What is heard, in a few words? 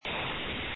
call recording